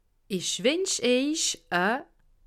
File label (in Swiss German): "winsch", alsace